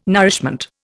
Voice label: "nourishment", Indian